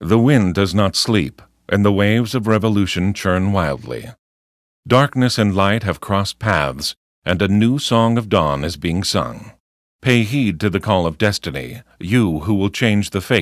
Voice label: tutorial voice; lineage2

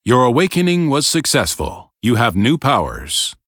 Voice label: tutorial voice; lineage2

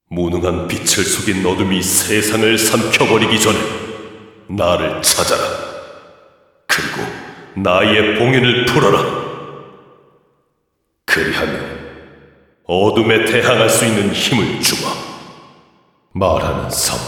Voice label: cinematic voice; lineage2

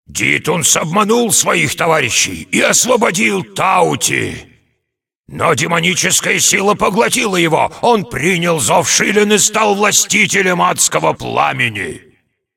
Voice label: cinematic voice, ru; lineage2

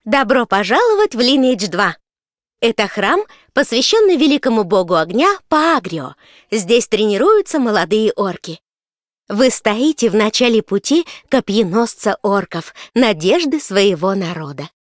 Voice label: tutorial voice, ru; lineage2

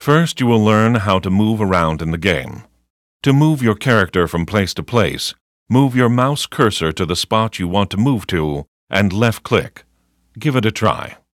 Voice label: tutorial voice; lineage2

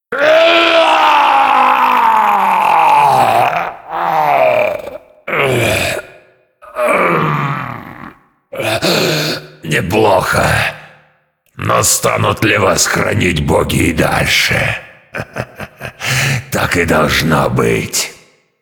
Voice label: cinematic voice, ru; lineage2

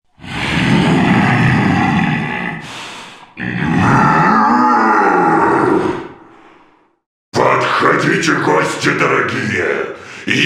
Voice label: cinematic voice, ru; lineage2

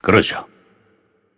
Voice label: cinematic voice; lineage2

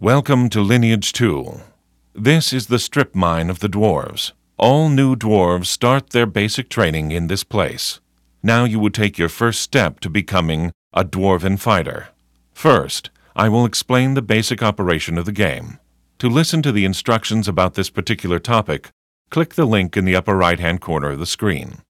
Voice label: tutorial voice; lineage2

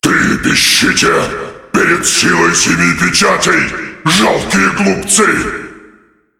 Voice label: cinematic voice, ru; lineage2

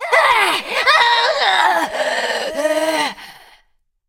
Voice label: cinematic voice; lineage2

